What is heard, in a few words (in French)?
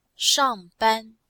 "shang4ban1", chinois; Cours de Chinois